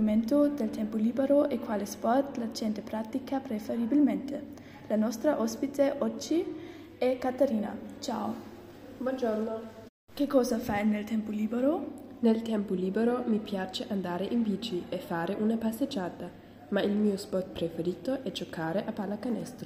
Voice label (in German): Italienisch Podcast, un podcast italiano; Fitness Lab